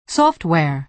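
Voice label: "software", American English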